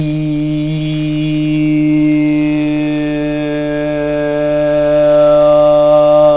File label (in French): antérieures tendues, voyelles; tendues de [i] à [a] = [iɪeɛæa]